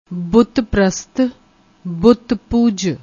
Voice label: "butt prasat", Punjabi